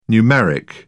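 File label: "numeric", British English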